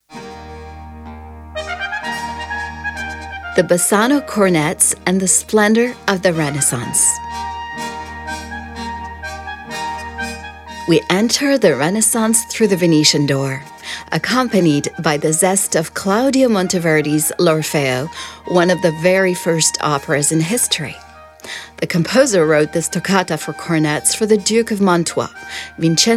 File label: audioguia english; delamusica